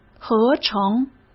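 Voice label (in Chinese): "合成", Mandarin Chinese; chinese flashcards; hsk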